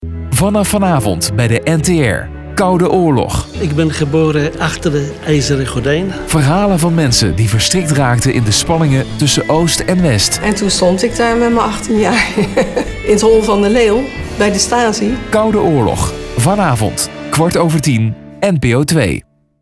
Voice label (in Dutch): promo Koude Oorlog; NTR